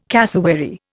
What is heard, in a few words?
"cassowary", Indian